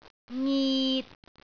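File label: "ngit6", Cantonese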